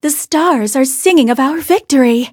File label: victory, en; cookie run